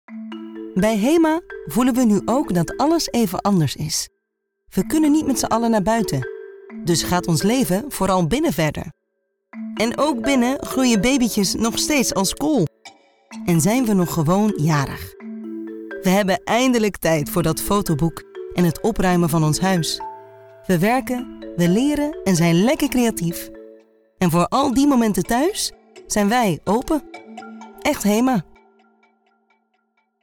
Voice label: NL; Hema